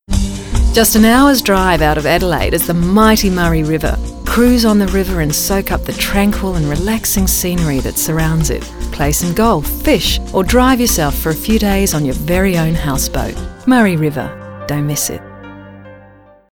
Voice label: accents